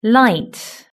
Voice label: "light", british english

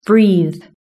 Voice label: "breathe", british english

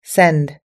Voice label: "send", us english

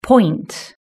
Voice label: "point", British English

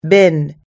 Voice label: "bin", us english

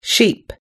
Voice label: "sheep", us english

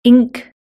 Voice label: "ink", british english

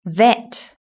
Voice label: "vet", british english

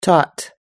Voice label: "taught", us english

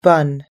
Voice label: "bun", us english

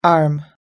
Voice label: "arm", us english

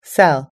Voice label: "sell", us english

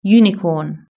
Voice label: "unicorn", british english

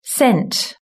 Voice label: "sent", british english

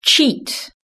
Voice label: "cheat", british english

